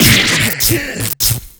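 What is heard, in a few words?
shock, Japanese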